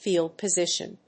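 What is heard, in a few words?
"field position", English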